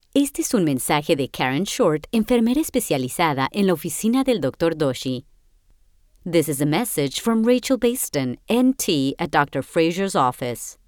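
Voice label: Spanish, bilingual, voice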